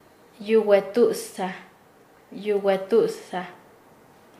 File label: "mensajero", Nasa Yuwe; paez